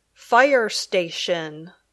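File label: "fire station", English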